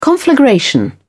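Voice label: "conflagration", British English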